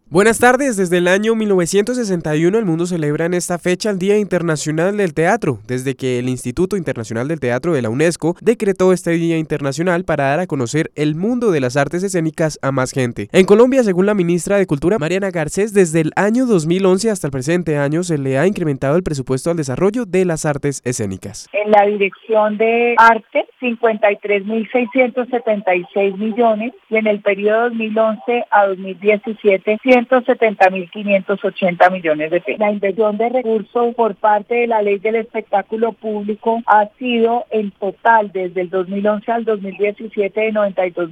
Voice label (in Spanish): Audio Radio